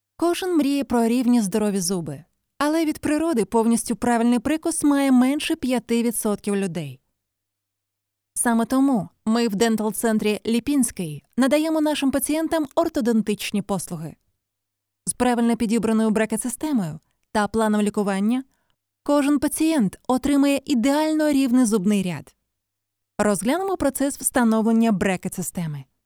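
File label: Ukrainian, female, e-learning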